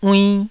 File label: "uinn2", taiwanese